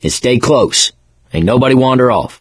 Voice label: stay together, mechanic; source engine; left 4 dead 2